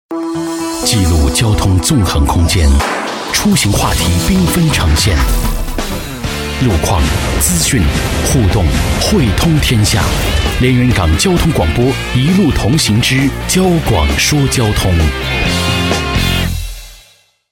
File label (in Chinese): 男声 浑厚大气 片花; 交广说交通